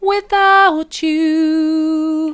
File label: without you soft; vocal